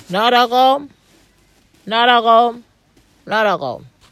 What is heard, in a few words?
"narragawoom" Gija; Yirrayin warrg-girrem.